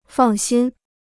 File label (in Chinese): "放心", Chinese